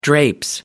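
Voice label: "drapes", British English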